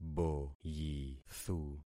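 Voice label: "boyithu", concatenated syllables; Affixing experiment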